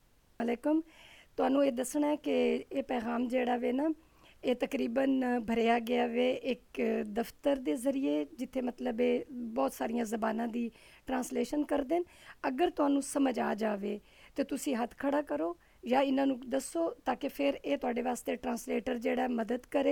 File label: Punjabi